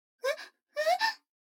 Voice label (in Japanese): 女の子台詞